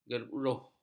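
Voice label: "geruh", Malay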